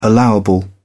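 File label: "allowable", British English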